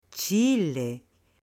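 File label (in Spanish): "chile" Spanish, male; ALIMENTOS; AppLenguaje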